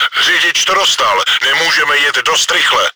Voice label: driver killed, cz; Czech; World of Tanks

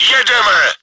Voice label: start battle, cz; Jedeme!; Поехали!; Czech; World of Tanks

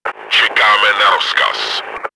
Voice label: combat reserve, cz; Čekáme na rozkaz!; Czech; Мы ждём приказа!; World of Tanks

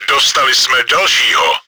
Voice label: enemy killed, cz; Dostali jsme dalšího.; Мы сняли ещё одного.; Czech; World of Tanks

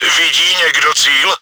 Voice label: target unlocked, cz; Vidí někdo cíl!; Czech; Кто-нибудь видит цель?; World of Tanks

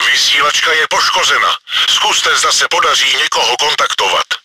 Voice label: radio damaged, cz; Vysílačka je poškozena, zkuste zdá se podaří někoho kontaktovat.; Czech; Радиостанция повреждена, попробуйте связаться с кем-нибудь.; World of Tanks

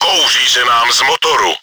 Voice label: engine damaged, cz; У нас дымится двигатель!; World of Tanks; Czech

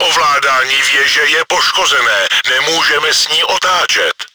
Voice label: turret rotator destroyed, cz; Ovládání věže je poškozené, nemůžeme s ní otáčet.; Czech; Механизм управления башней повреждён, мы не можем ею вращать.; World of Tanks

